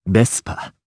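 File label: Vox, voices, Japanese; Siegfried; Vespa; kingsraid audio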